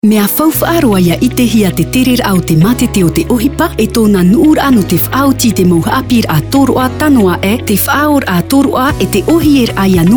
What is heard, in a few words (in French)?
spot radio en tahitien